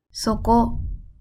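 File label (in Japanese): "そこ", Japanese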